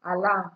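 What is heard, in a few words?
"alarm", Nigerian Pidgin, female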